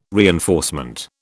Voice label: "reinforcement", British

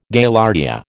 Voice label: "Gaillardia", American

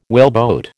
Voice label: "whaleboat", American

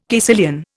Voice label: "caecilian", Indian

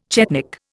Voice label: "chetnik", Indian

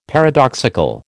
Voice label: "paradoxical", American

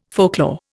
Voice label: "folklore", Indian

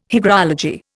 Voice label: "hygrology", Indian

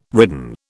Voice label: "ridden", British

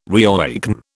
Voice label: "reawaken", British

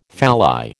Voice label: "phalli", American

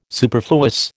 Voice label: "superfluous", American

